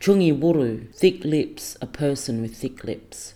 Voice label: "tyunggi-wurru" thick lips a person with thick lips